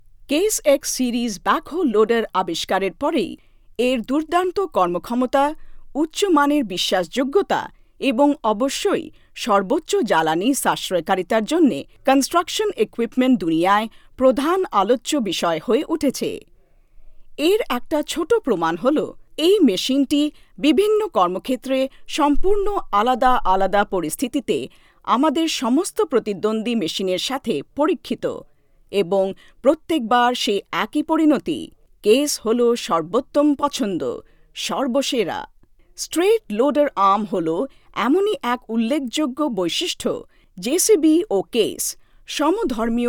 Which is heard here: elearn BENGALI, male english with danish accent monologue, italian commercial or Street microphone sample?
elearn BENGALI